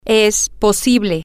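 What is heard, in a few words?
it is possible, spanish, female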